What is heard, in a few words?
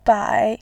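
"bai", Mandarin Chinese, tone 3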